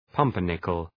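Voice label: "pumpernickel", English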